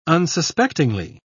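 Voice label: "unsuspectingly", British English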